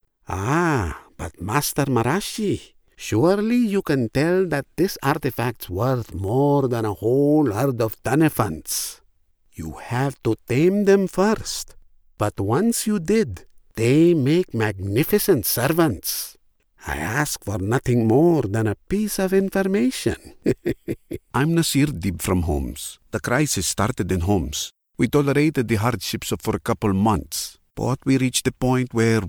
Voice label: Neutral Arabic Pan Middle Eastern accented English